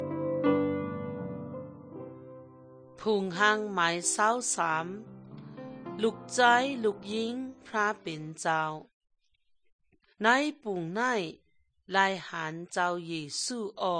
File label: good news shan eastern, drama